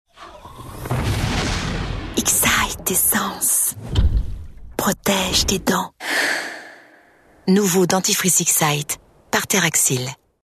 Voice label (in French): susurrée signature assurée, voix off comédienne; X-Ite Dentifrice